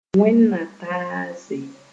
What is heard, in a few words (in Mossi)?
"wẽnd-na-taase", Moore